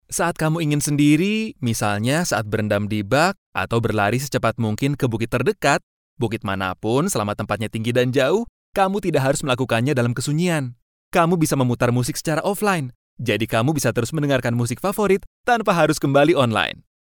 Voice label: male, Indonesian, character narration